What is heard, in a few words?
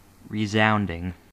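"resounding" English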